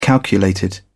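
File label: "calculated", English, British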